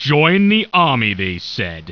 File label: annoyed; war2; humans; basic human voices